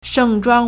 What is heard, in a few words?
"sheng4zhuang1", Chinese